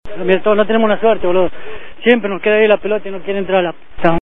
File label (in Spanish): chino puteada